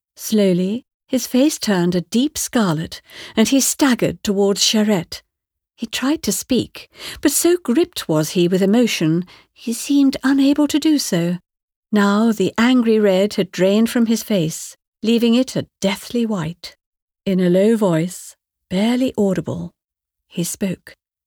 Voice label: studio sample